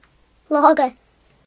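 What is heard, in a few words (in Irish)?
"mice", Irish; gcompris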